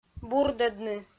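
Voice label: "бурдӧдны", Komi-Zyrian, female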